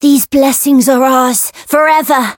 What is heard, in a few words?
Voice encount fear